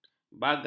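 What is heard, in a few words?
"bagas", Malay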